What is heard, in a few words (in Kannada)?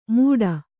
"ಮೂಢ", Kannada